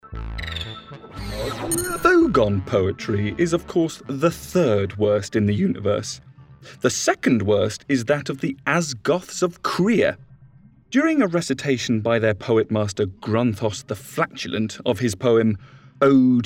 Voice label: Narration